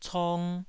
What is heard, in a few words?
"cong", taiwanese